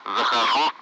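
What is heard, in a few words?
Speech Russian; Messages; Allied Flight; DCS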